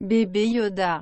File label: Baby Yoda - French